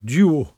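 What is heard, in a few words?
"duo", Cromarty Fishertoun dialect; [dEWo]; small creek; Word; fishertoun dictionary; cromartymuseum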